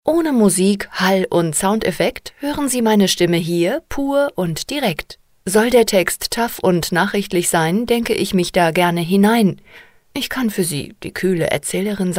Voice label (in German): Sprecher Showreel